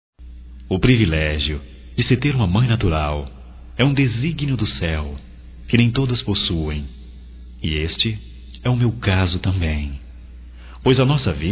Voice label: ADOTIVA (M), Dia das Maes, masc; Telemensagens 2017

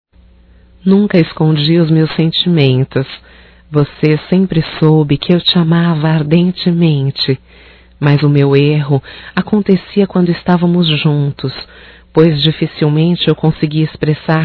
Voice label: Romântico Feminino

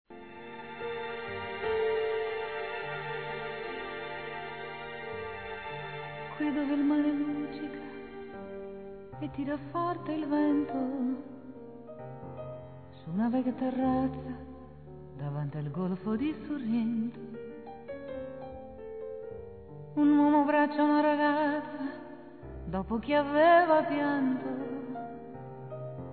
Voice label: PARA VOVO F, Páscoa, fem; Telemensagens

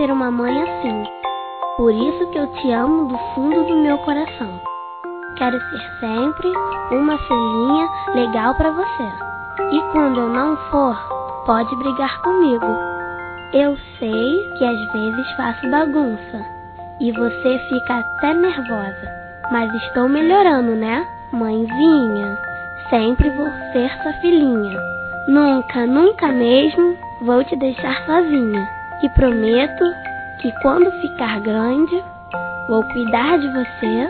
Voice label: Aniversário Mãe Infantil Feminino; Telemensagens

